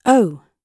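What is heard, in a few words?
"owe", British English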